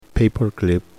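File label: "Paperclip", English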